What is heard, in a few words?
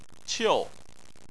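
"qiu", Chinese, tone 4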